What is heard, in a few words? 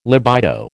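"libido", American English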